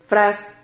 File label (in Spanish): "fras", Silabas